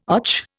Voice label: "och", Indian